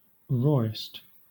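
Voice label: "rawest", English; pronunciations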